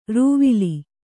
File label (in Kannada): "ರೂವಿಲಿ", Kannada; rūvili; noun; = ರೂಪಿಲಿ.